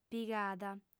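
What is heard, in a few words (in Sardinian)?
"pigada", female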